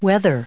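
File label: "whether", US English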